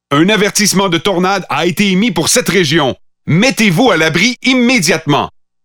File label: Pathfinder French Standard Canadian